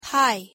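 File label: "hi", English; pronunciation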